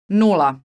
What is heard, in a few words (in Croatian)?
"nula", Croatian